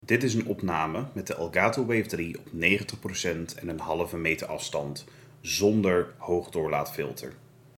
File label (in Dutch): Microfoonopname Elgato Wave3 hoogdoorlaatfilter uit; Review: Elgato Wave:3